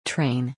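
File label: "TRAIN", English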